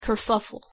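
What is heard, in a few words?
"kerfuffle", English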